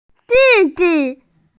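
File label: "younger brother", Mandarin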